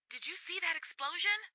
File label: Radio Chatter, "Did you see that explosion"